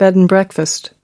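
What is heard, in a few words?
"bed and breakfast", English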